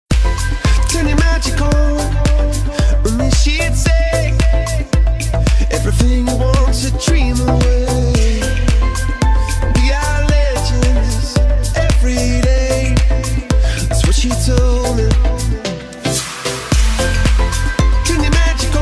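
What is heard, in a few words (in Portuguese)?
aniversario casamento terceiros masculino, telemensagem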